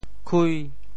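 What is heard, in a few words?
"khui5", Teochew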